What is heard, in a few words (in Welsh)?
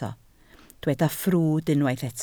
"ffrwd", Welsh